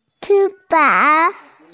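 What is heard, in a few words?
"chú fáng", Mandarin Chinese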